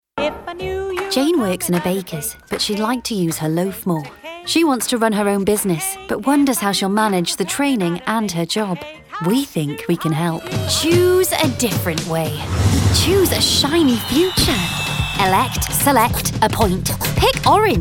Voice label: Commercial